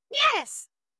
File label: VoiceActing es